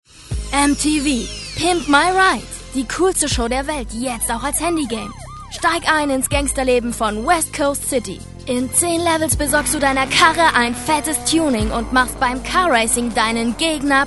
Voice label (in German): MTV Werbung, Sprachproben